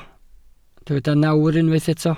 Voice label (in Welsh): "nawr", Welsh